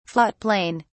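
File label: "float plane", British English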